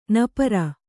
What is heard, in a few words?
"ನಪ್ಪುರಿ", Kannada